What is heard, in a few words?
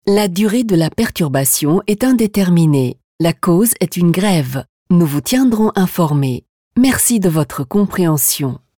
FR; Basler Verkehrsvertriebe